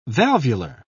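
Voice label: "valvular", British English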